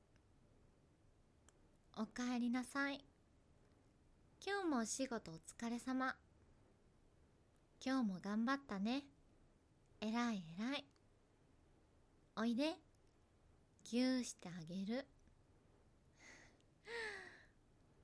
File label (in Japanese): おねえさん ぼいす